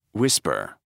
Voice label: word whisper

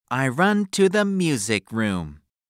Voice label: Read

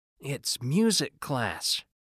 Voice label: Read

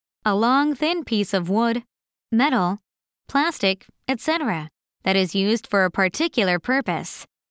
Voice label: "stick" m

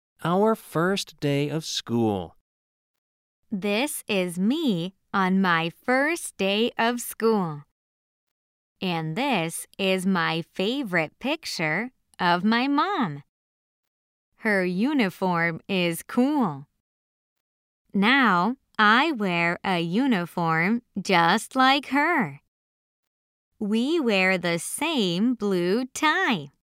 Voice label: Read

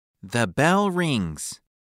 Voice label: Read

